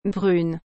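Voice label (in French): "brune", French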